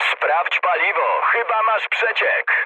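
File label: tank damaged mood med, speech Polish; War Thunder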